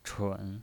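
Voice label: "chun", Mandarin Chinese pinyin voice, third tone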